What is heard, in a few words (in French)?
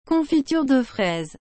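"confiture de fraise", French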